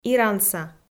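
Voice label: "иранса" Komi, female; audio dict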